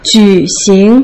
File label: "ju3 xing2", Putonghua